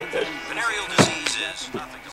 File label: radio announcer chattering